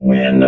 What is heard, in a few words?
"win", robot